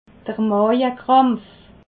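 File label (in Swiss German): "maawekrampf", Alsatian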